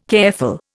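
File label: "careful", Indian English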